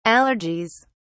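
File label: "allergies", British English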